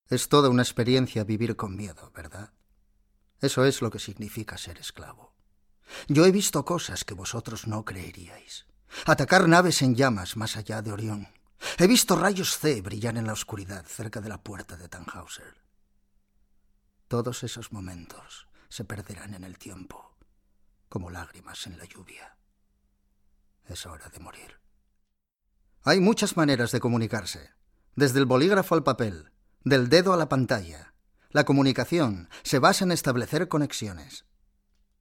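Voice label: Spanish EU, male, conversational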